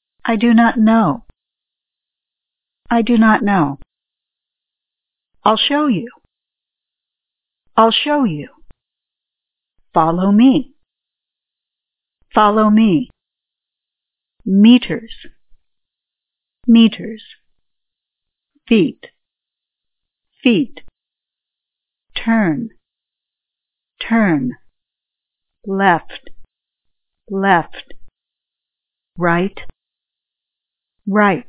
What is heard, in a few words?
directions female